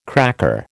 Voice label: "cracker", American

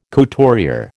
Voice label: "couturier", American

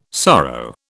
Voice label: "sorrow", British

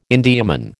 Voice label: "Indiaman", American

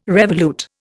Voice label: "revolute", Indian

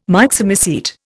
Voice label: "Myxomycete", Indian

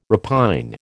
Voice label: "repine", American

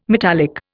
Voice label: "metallic", Indian

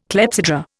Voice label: "clepsydra", Indian

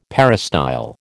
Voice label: "peristyle", American

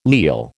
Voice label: "leal", American

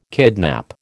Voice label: "kidnap", American

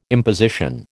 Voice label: "imposition", American

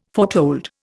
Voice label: "foretold", Indian

